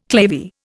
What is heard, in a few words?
"clavier", Indian